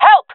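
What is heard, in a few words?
request help, female; vo; agents; jungle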